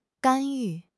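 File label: "干预", Chinese; HSK6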